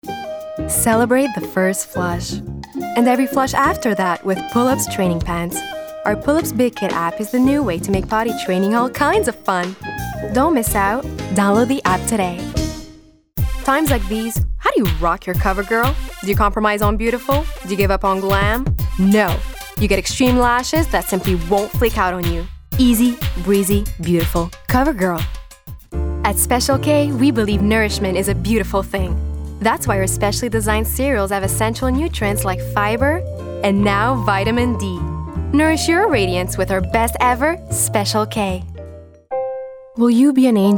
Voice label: commercials